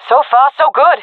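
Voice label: round winning, jungle female; vo; Counter Strike Model Pack; CS:GO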